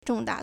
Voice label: "zhong4 da4", Chinese